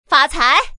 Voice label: green, voice woman